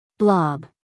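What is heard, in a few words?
"blob", American English, female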